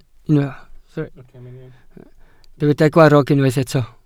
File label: "gwarrog", Welsh